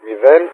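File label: "0", Greek; Wingman; Digits; Speech; DCS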